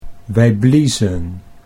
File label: "wij bliezen", Dutch